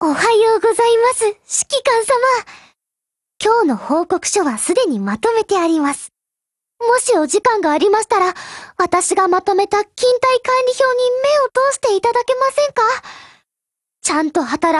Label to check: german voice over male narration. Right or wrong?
Wrong